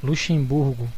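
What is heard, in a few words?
"luxembourg", Brazilian Portuguese; gcompris; geography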